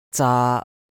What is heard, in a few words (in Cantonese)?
"zaa1", Cantonese